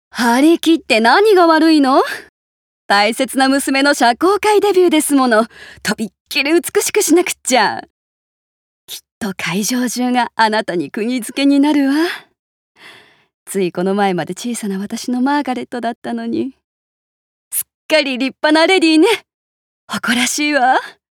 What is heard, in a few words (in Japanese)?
上流階級母親40代